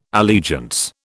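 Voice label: "allegiance", British English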